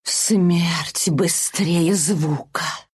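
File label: ability sonic wave, Russian; Dota 2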